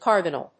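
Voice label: "carbinyl", English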